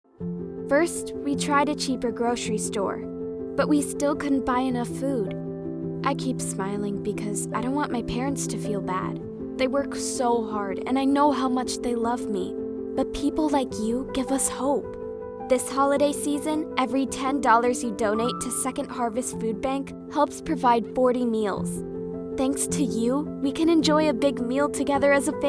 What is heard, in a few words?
PSA